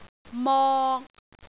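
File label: "mok3", Cantonese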